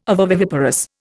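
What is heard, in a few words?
"ovoviviparous", Indian English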